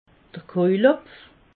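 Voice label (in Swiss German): "kojelhopf", Alsatian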